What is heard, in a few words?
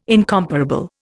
"incomparable", Indian